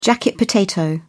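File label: "jacket potato", British English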